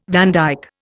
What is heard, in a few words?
"vandyke", Indian